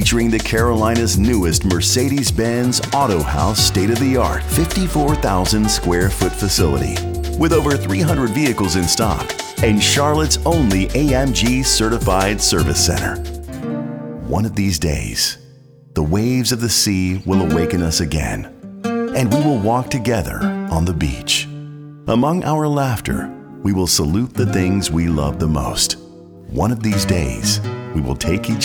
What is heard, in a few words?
Luxury, native speaker (angielski), lektor; demo